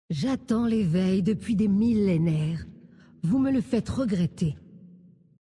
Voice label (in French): Terrestres Femme Blague, VF; Eveil